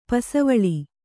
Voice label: "ಪಾಸುವಲೆ", Kannada

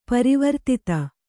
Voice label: "ಪರಿವರ್ಧಿತ", kannada

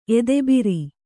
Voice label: "ಎದೆಭಾರ", Kannada

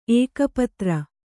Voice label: "ಏಕಪತ್ರ", Kannada

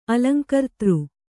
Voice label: "ಅಲಂಕರ್ತೃ", Kannada

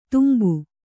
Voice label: "ತುಂಬು", Kannada

